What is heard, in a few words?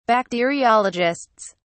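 "bacteriologists", British English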